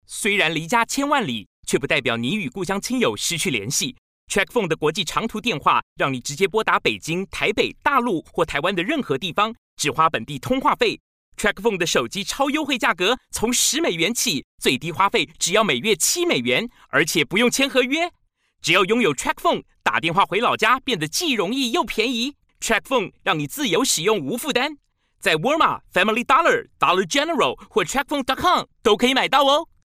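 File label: Chinese Taiwanese Radio; GoLocalise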